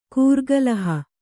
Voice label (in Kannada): "ಕೂರ್ಗಲಹ", Kannada; kūrgalaha; noun